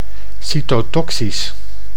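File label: "cytotoxisch", Dutch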